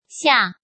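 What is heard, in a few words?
"Xià", Chinese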